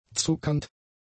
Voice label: "zuckernd", German; adjectives